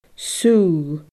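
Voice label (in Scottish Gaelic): "sugh", Scottish Gaelic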